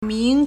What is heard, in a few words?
"ming2", Chinese